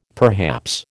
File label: "perhaps", American